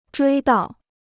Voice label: "追悼", Chinese

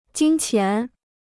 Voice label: "金钱", Chinese

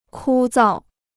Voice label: "枯燥", Chinese

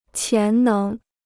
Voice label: "潜能", Chinese

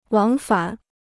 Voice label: "往返", Chinese

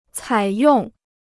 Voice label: "采用", Chinese

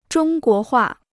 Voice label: "中国画", Chinese

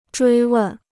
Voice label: "追问", Chinese

